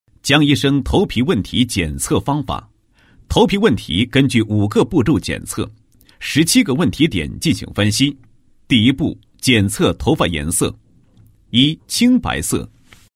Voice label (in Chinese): 医疗, 视频文案, 国语男声配音; 高音经济型; 头皮检测流程